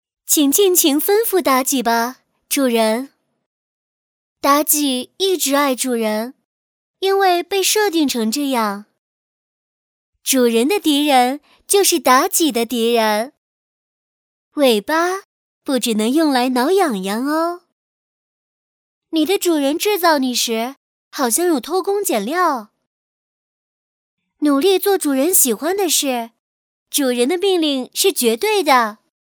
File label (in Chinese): 女 角色模仿